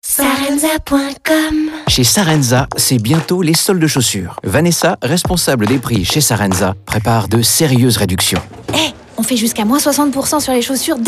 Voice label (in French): radio pub spot